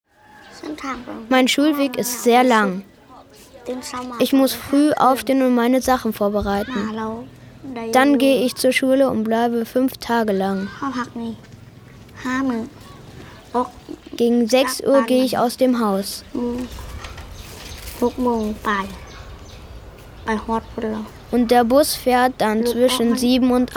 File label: VoiceOver